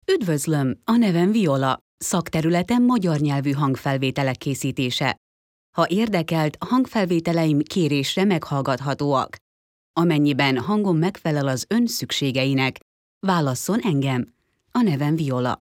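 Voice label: Hungarian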